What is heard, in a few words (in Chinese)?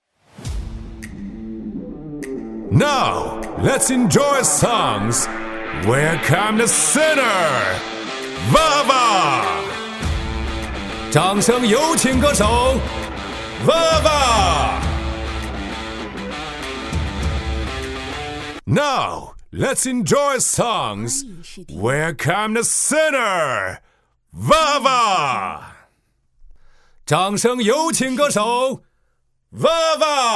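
中英·音乐节激情现场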